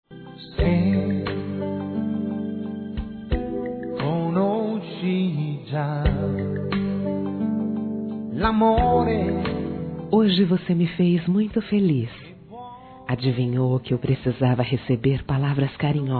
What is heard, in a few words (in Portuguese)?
AGRADECIMENTO AMIZADE F, Telemensagens